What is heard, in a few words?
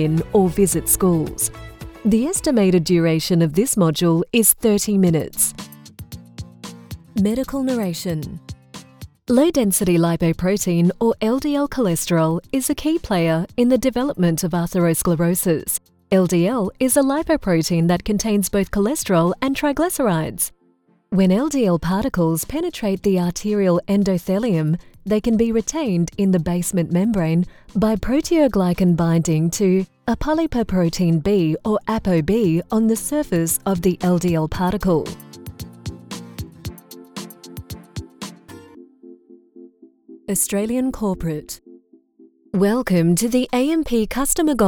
Elearning and Training